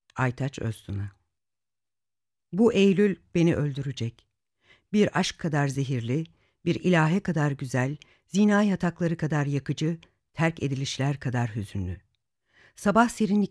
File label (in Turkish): türkçe seslendirme